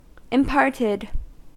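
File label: "imparted", US English; pronunciations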